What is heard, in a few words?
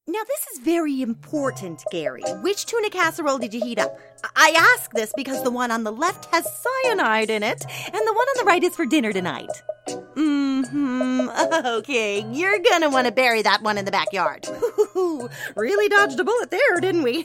Animation